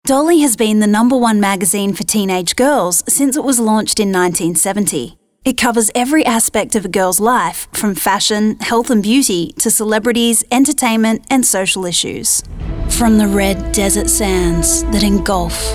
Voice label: Corporate

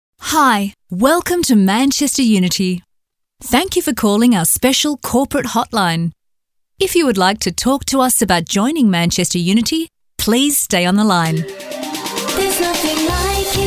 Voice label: Natural

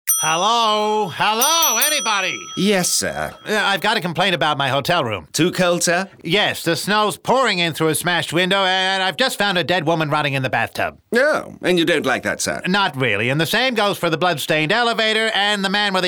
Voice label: Character Accents

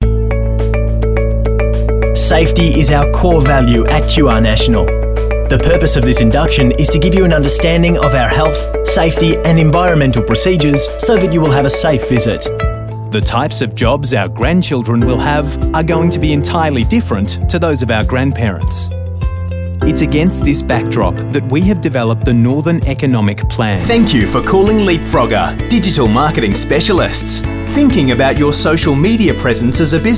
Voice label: CORPORATE

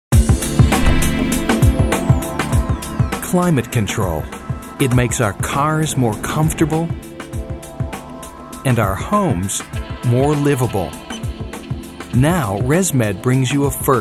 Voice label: corporate

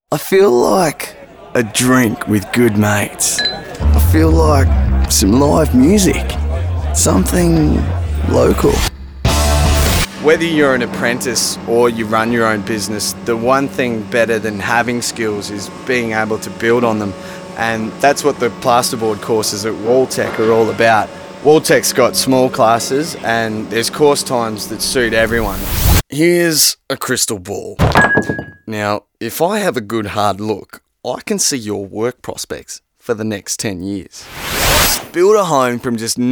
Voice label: natural

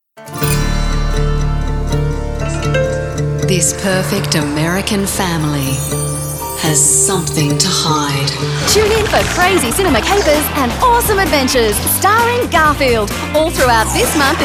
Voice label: PROMO

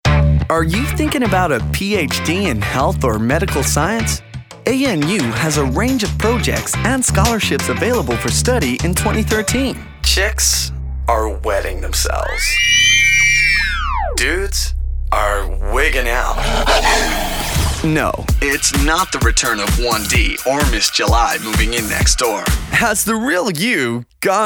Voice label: american accent

